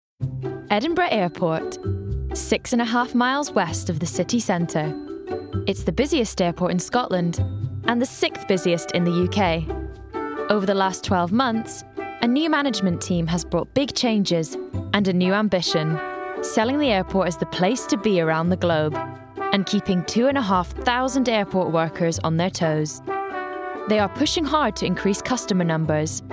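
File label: documentary; 20s-30s. Male. Scottish.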